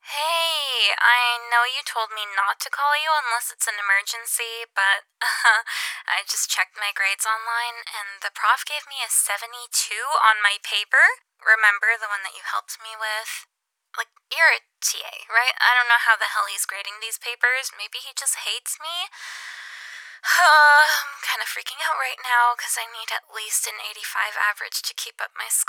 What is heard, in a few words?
voicemail